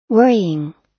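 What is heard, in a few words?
"worrying", US English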